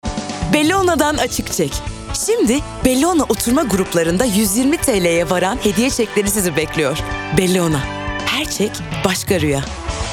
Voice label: kampanya, seslendirme

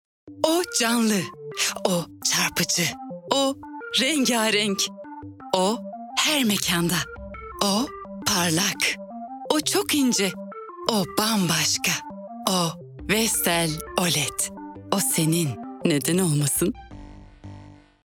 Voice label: kurumsal imaj; enerjik tempolu

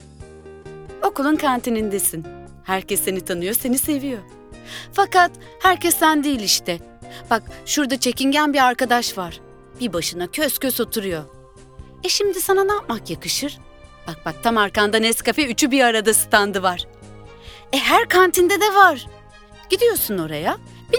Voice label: enerjik esprili

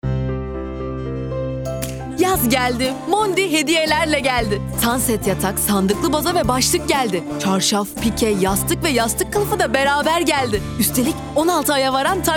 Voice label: kampanya tempolu, seslendirme

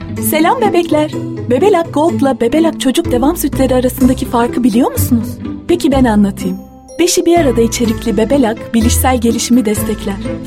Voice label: enerjik esprili

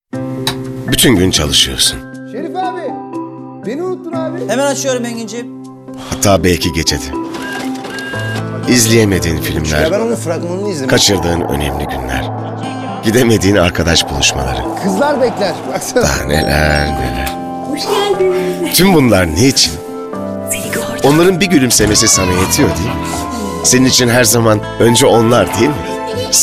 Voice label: neseli esprili, seslendirme

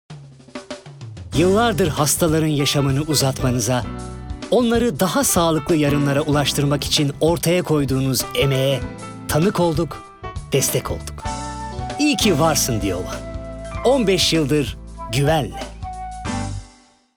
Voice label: sicak samimi, seslendirme

